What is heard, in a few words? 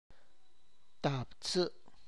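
ninety, Tlacochahuaya Zapotec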